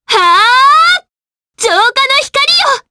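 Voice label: Vox Skill, Japanese; Frey; kingsraid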